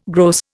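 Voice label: "gross", Indian English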